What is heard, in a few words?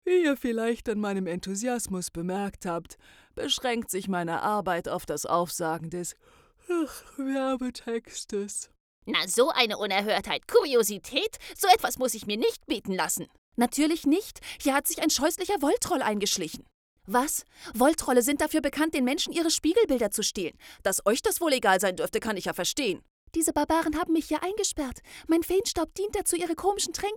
game fantasy German